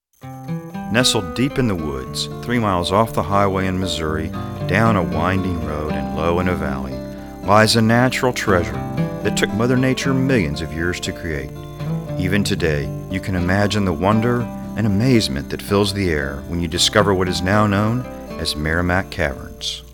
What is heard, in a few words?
spot, radio ad; Meramec Caverns